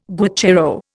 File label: "guacharo", Indian